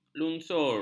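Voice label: "lungsur", Malay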